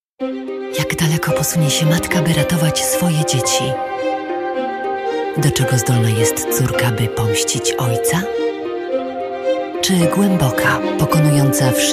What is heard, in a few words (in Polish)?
Lektorka; Studio Nonagram